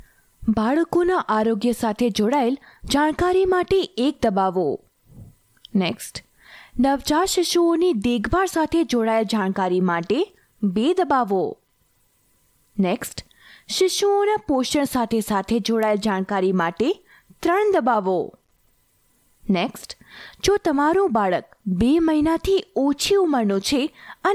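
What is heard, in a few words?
Gujarati F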